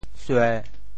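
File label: "sue1", Teochew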